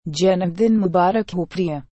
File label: happy birthday my dear hindi